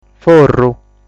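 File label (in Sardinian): "Forru", Sardinian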